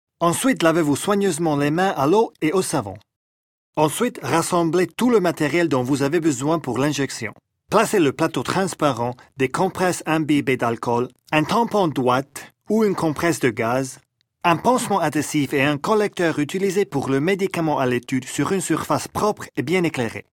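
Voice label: Swiss French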